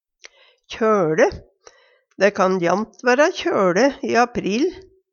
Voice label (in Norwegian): kjoLe, Numedalsmål; kjølig, litt kald